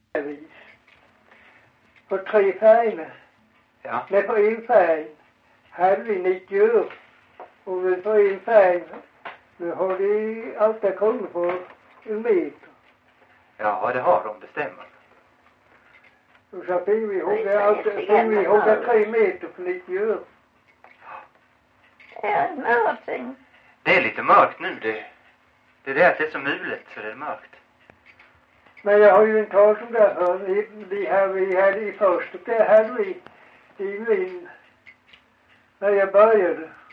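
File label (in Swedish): Farstorp Skåne, dialekter; Folkminnen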